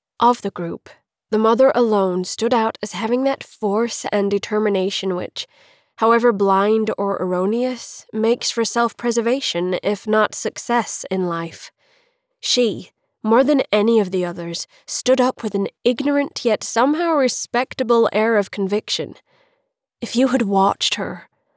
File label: Classic Fiction American Accents singing descriptive